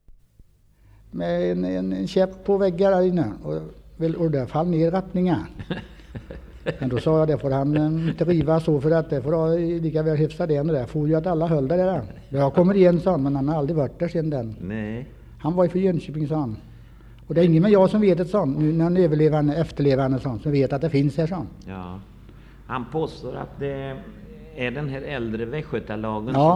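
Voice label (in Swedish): Kungslena Västergötland, Svenska sagor, dialekter; Folkminnen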